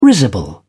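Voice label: "risible", British English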